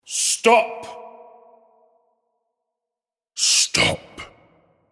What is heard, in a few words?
Stop Spoken, The word ‘stop’ spoken in male voice x 2.; Exclamations